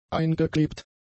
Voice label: "eingeklebt", German; adjectives